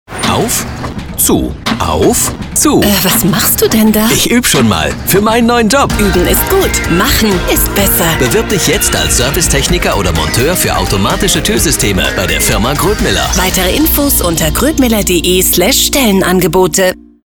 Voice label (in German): Sprecher werbung